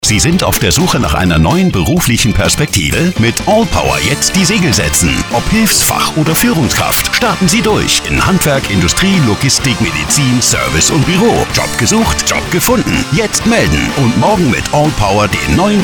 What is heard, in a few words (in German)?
Funkspot; ALLPOWER; Personalprofis